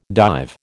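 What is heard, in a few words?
"dive", American English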